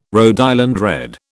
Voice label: "Rhode Island Red", British

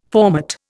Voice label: "formate", Indian

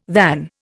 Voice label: "than", Indian

